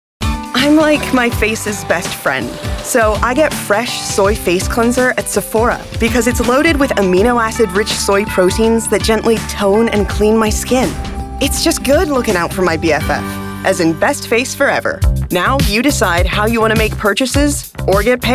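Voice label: commercials, Voice Actor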